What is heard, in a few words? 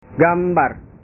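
"gambar", Indonesian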